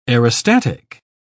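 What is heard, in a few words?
"aerostatic", English, uk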